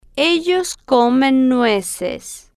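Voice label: ellos Comen Nueces, Spanish, female, slow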